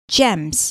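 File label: "gems", English word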